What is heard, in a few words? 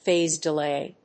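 "PHASE DELAY", English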